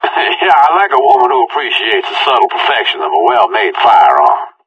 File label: conversation; Left 4 Dead 2